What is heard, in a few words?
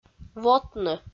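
"вотны", Komi, female; audio dict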